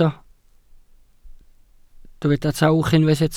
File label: "tawch", Welsh